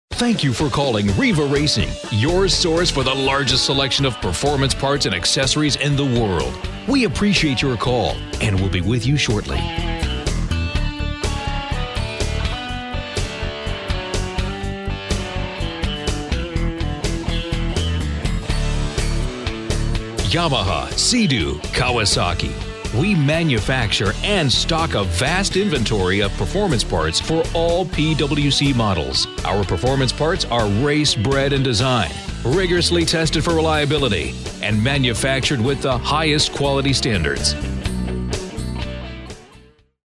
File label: on hold